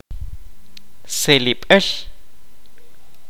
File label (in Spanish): "cuchillo", Kaqchikel